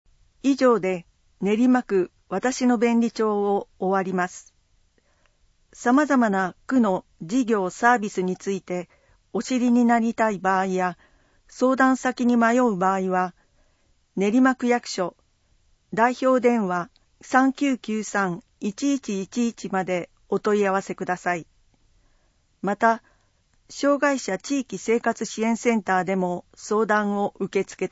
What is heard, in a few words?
announce